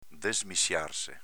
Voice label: "desmissiarse", dialetto veneto; desmissiārse v.rifl. svegliarsi